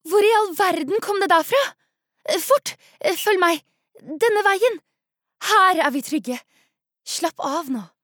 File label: Norwegian dub, female